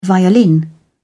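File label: "violin", English, British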